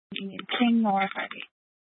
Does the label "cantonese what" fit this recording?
No